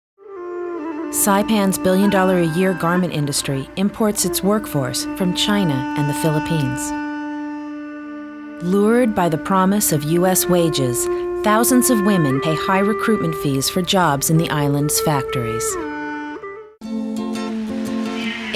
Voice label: documentary